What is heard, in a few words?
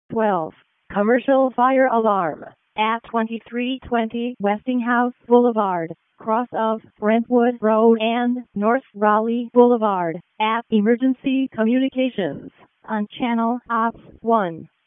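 Raleigh Fire Department ALERT, p25; wake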